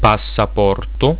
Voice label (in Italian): "passaporto", italiaans; reizen